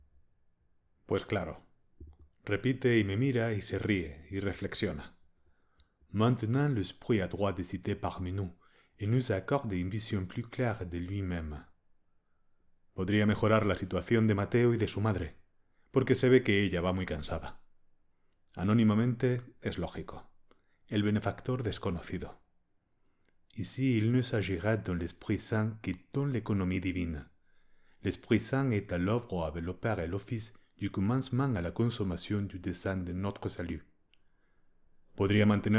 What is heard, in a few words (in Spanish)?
caos calmo frances